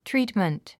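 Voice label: "treatment", English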